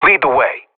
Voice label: radio bot follow you, vo professional; Counter Strike Model Pack; CS:GO